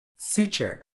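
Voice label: "suture", British English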